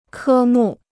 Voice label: "科目", Chinese

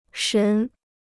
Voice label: "神", Chinese

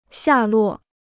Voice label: "下落", Chinese

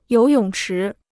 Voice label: "游泳池", Chinese

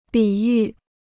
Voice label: "比喻", Chinese

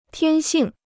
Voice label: "天性", Chinese

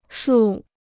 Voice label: "树", Chinese

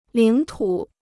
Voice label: "领土", Chinese

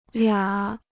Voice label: "俩", Chinese

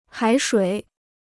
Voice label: "海水", Chinese

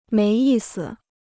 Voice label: "没意思", Chinese

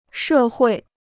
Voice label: "社会", Chinese

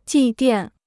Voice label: "祭奠", Chinese

